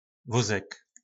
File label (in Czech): "Vózek", nářečí Po našimu; Vozík